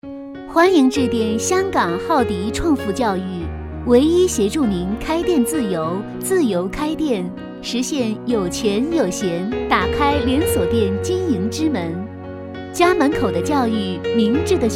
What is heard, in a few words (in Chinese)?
女 彩铃, 方言童声